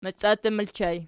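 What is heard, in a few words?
"maciattu" ergative plural, Archi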